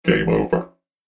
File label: Game Over Robot Voice; Video Game Sound Effects; science fiction sound effect